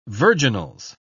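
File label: "virginals", English, British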